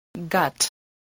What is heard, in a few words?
"gut", spelling